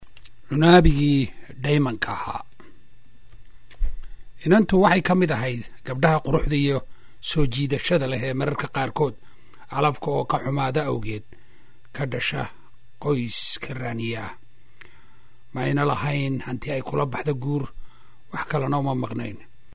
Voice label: Somali M